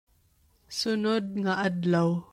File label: Cebuano